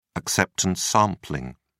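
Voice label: "acceptance sampling", English, British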